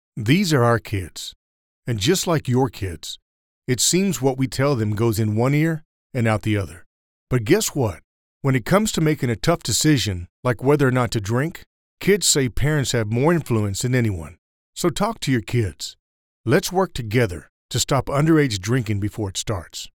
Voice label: PSA; ANHEISER BUSCH

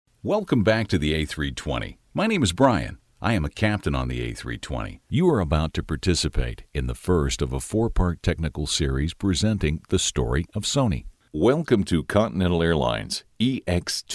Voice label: e-learning training

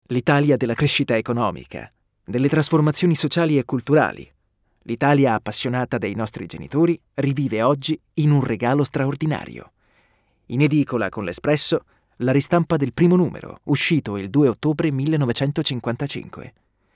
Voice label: dry recording

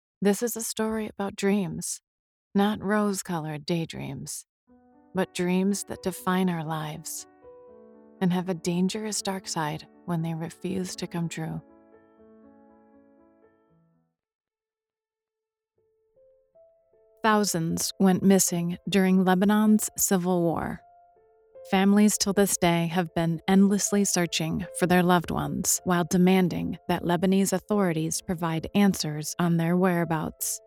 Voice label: Documentary Narration

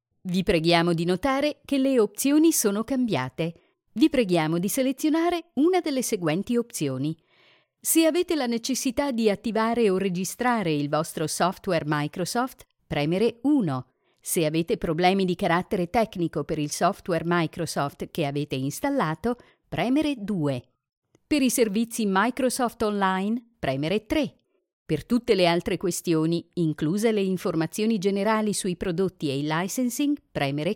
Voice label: IVR; Microsoft

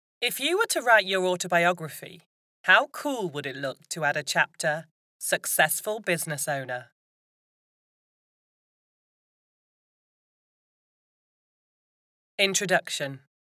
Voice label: studio sample narration

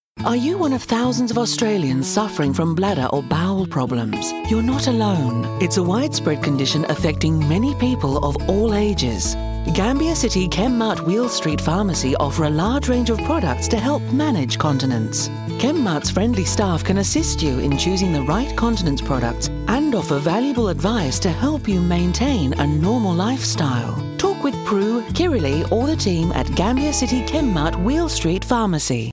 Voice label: radio ad for older australians

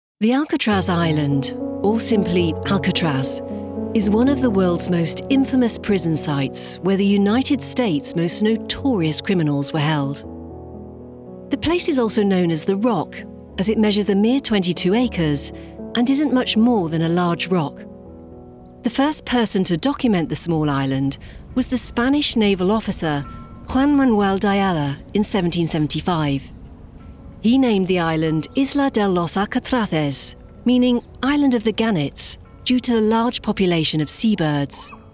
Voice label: M Documentary; Algatraz

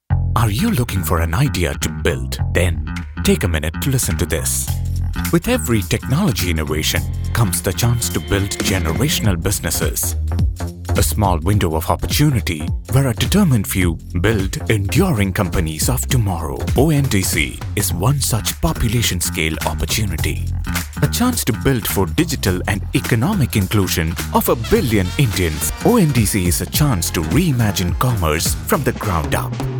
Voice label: english voice over; ONDC

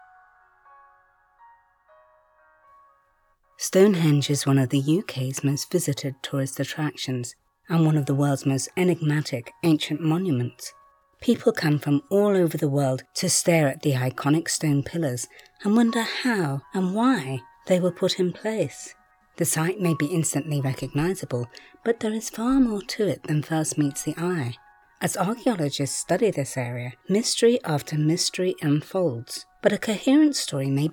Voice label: with music; Stonehenge

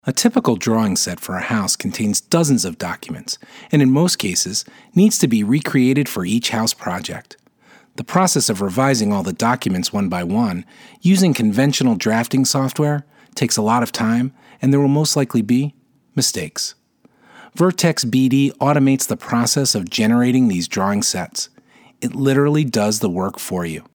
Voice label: Explainer

